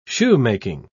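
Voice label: "shoemaking", British English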